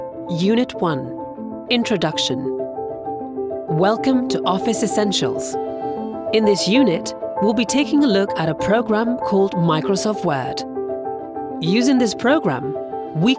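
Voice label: Italian, female, English UK, elearning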